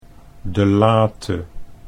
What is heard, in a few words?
"delate", Dutch